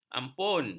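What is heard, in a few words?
"ampun", Malay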